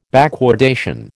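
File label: "backwardation", American English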